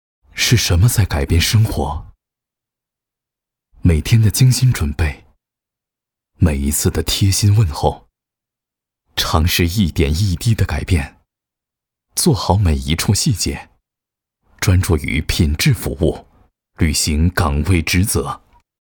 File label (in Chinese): 男 独白 低沉; 是什么在改变生活